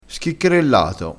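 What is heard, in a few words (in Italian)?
"schiccherellato", dialetto crespinese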